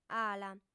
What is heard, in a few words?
"ala", audiofem